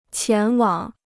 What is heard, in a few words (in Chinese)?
"前往", Chinese